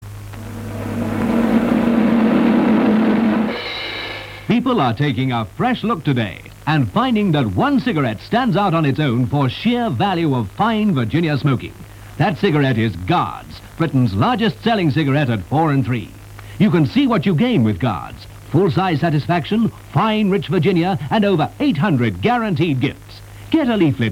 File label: Radio commercial cigarettes; Radio 390; Guards cigarettes